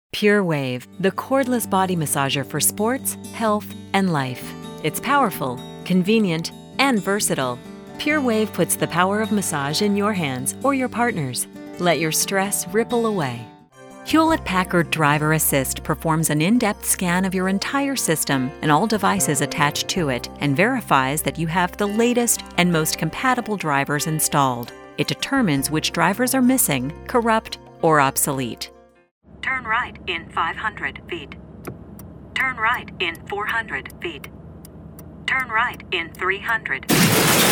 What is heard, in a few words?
industrial vo